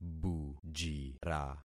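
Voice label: "bujira", concatenated syllables; Affixing experiment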